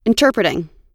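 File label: "interpreting", US English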